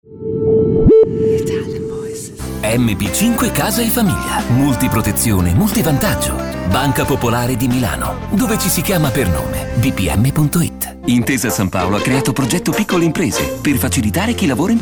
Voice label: ITALIAN VOICES Reassuring Friendly, male voices

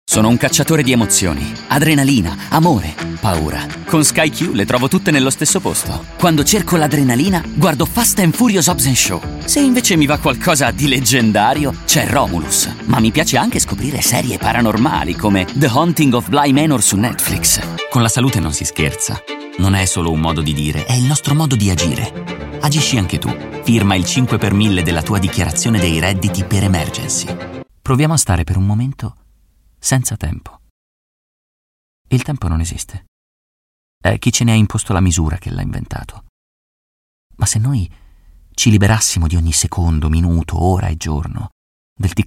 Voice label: ITALIAN VOICES Natural Geniune, male voices

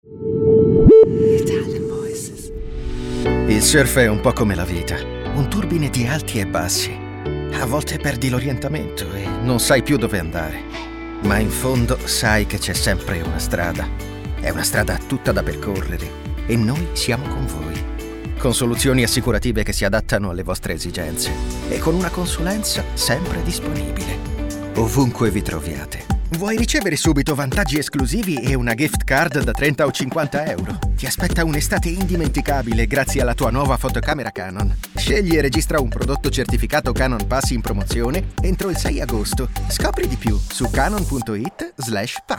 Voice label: ITALIAN VOICES Natural Friendly, male voices

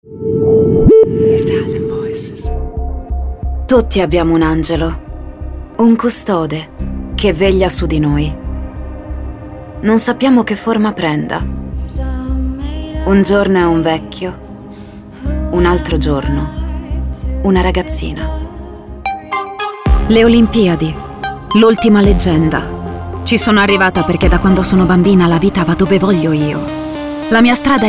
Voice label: ITALIAN VOICES Dark Straight, female voices

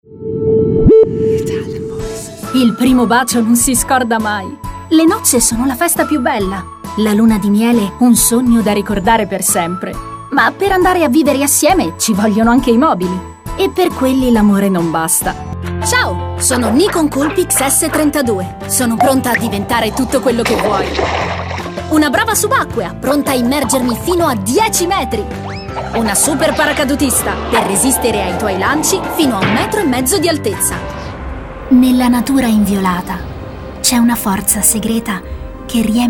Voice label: ITALIAN VOICES commercial, female voices

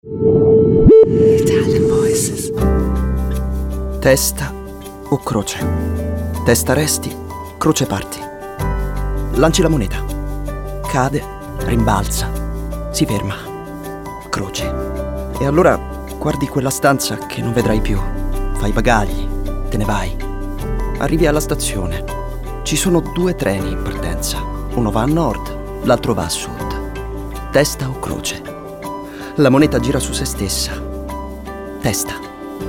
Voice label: ITALIAN VOICES, male voices; Naked Reel

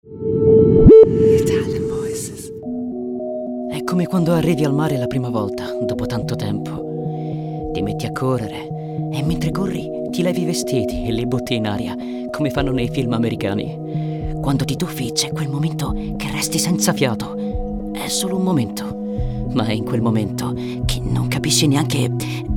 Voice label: ITALIAN VOICES, male voices; Naked Reel

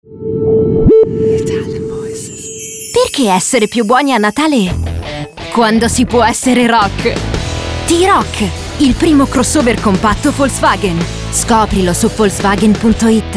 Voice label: ITALIAN VOICES Friendly Uplifting, female voices

